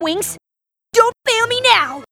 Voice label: rage; tf; vs ponyville; rainbow dash